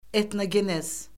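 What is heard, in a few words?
"etnogenez", Azerbaijani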